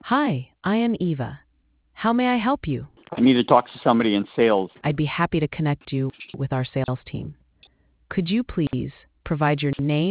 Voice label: conversation